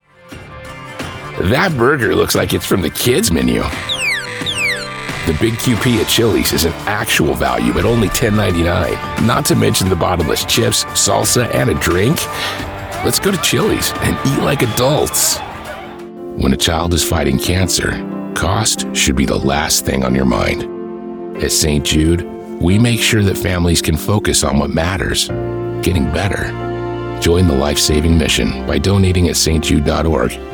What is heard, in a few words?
Commercial